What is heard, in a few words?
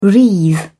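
"wreathe", English, British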